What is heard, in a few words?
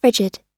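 "frigid", American English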